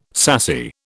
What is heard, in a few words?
"sassy", British